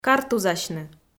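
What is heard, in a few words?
"картузасьны", Komi, female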